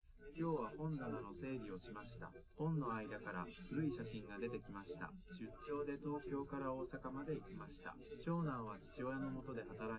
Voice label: male live rec

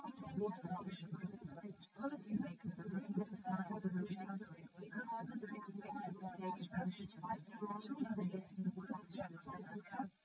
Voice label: female srec 130ms 20cm sim

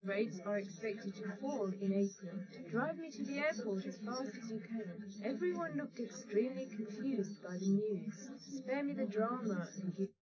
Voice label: female srec 130ms 20cm sim

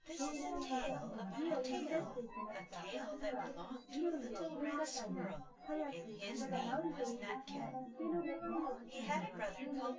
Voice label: female synth conv 250 ms 5 cm

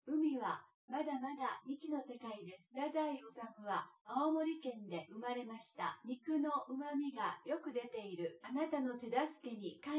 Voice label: test female synthconv 250ms 5cm sim; SiSEC10; underdetermined

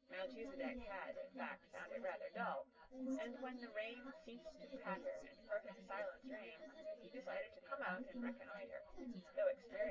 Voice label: female synth conv sim; SiSEC10; underdetermined

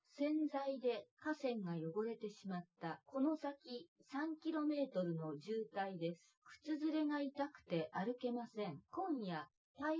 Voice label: female synthconv 130ms 5cm

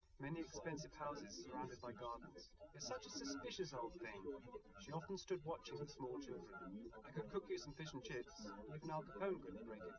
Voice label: male, live rec, 130ms, 1m; SiSEC08; SiSEC underdetermined

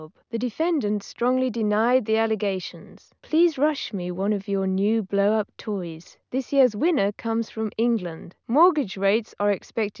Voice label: female 380ms 50cm; SiSEC11; underdetermined

